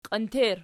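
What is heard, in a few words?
"qientier" nominative, Archi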